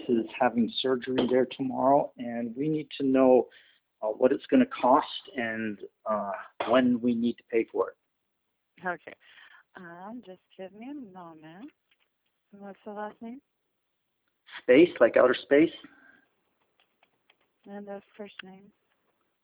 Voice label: Phone Call Billing Amount Only; Arizona Specialty Hospital